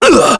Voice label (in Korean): Vox Damage, kr; heroes; Baudouin; kingsraid